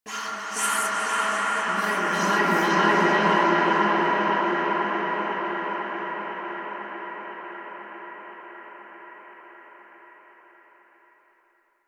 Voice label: Dialog Effect; Warframe; The New War; Finale

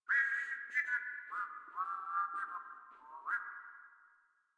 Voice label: Patrol, Grineer Male; Warframe

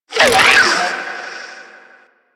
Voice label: Necramech Ranged Pain; Warframe

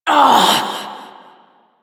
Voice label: Frustration; Warframe; Entrati Doppelganger; Evil Twin

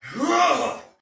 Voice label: Attack Heavy Soldier Male; Dialog; Barks; Battle; Sinecure

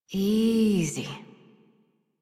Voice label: Horse Calm Adult; Warframe; Duviri

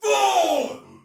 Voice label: Attack Normal, Soldier Male; Dialog; Barks; Battle; Sinecure

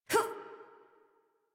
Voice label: Adult Operator Jump, en; Warframe; New War

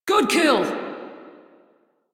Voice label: crew enemy defeat, female; Dialog; Warframe; Railjack Crew; Steel Meridian

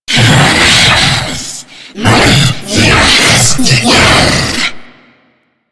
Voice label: Boss Speak Game Taunt; Warframe; Taunts; Lech Kril

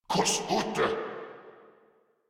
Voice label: Player Spotted, Grineer Male

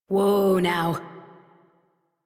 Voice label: Horse Stop Walk Adult; Warframe; Duviri

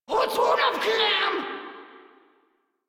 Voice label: Player Spotted Grineer Female, Barks, Battle

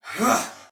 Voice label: Attack Soldier Male; Dialog; Barks; Battle; Sinecure

